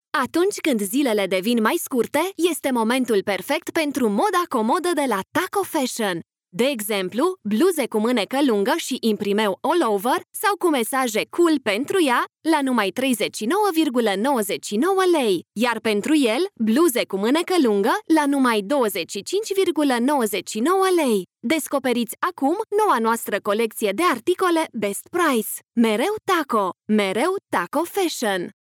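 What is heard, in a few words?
Romanian, female, radio commercial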